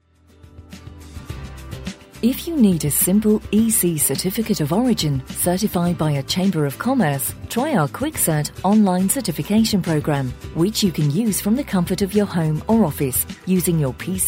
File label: telephone on-hold